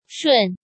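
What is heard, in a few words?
"Shùn", Chinese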